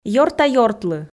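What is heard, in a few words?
"ёрта-ёртлы", Komi, female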